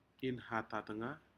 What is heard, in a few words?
"inhatatga", Aneityum; shellfish